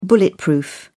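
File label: "bulletproof", British English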